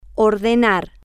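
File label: female, spanish; to Order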